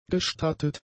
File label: "gestattet", German; adjectives